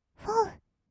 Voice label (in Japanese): "ふぉう", kawaii voice; ASMR; 1200 kawaii voice!!; 音ゲー